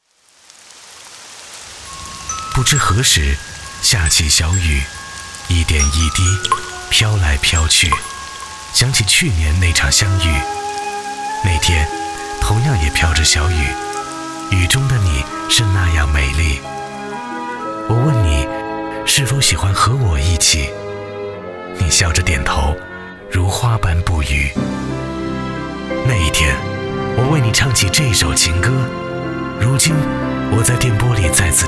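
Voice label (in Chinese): 男S347 国语 男声 宣传片-文艺节目宣传片台宣-温柔磁性 大气浑厚磁性 沉稳; 江苏文艺广播红舞鞋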